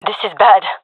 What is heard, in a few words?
scared, gendarmerie fem; vo; agents